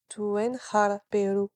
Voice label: female garrison, voice napatan civ

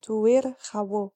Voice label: civ female gather, napatan

